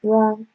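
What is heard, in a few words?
"wan", Nigerian Pidgin, female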